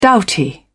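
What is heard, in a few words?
"doughty", British English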